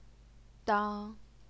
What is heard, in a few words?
"dang1", Chinese pinyin